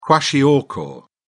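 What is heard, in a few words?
"kwashiorkor", British English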